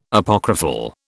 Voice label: "Apocryphal", British